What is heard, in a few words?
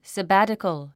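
"sabbatical", English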